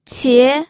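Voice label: "qie", Mandarin Chinese, second tone; pinyin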